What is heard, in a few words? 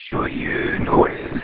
Monkey French